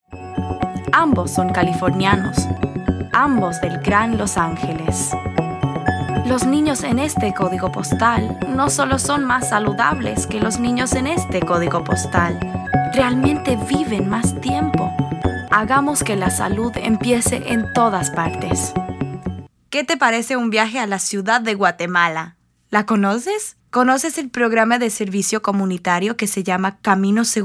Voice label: Spanish

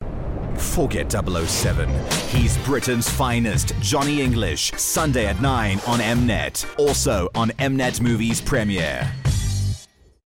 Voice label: promo; Johnny English Mnet

